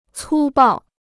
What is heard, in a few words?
"粗暴", Chinese